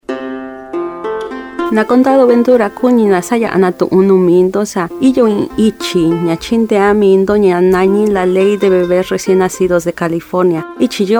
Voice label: Mixteco; Bebe Seguro